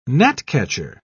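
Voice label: "gnatcatcher", British English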